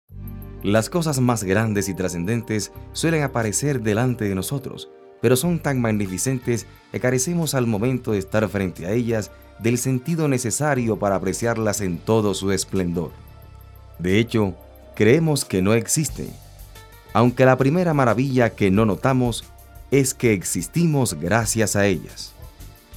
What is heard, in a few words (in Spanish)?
documental